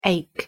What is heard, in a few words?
"ache", pronunciation en